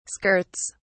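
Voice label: "skirts", British English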